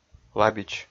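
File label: "лабич", Komi, male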